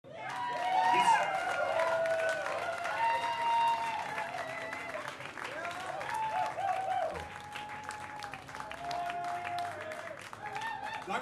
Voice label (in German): ansage